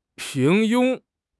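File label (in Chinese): "平庸", Chinese; HSK6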